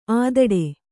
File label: "ಅಧಟು", Kannada